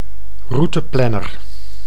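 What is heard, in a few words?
"routeplanner", Dutch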